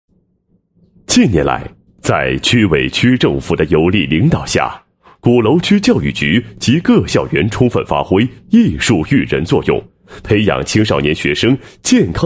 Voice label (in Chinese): 男 教育专题 艺术展演开场词; LED